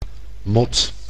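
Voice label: "mot", Dutch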